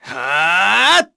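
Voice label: Vox Casting, Korean; Neraxis; kingsraid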